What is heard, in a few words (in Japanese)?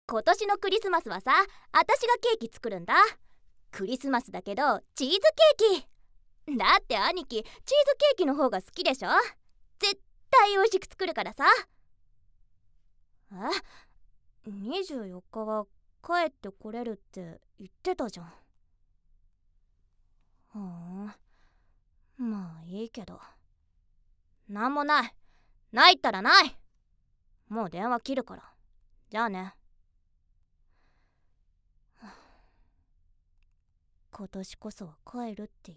ナチュラル